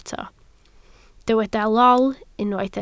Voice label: "lol", Welsh